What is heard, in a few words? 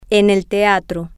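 theater, Spanish, female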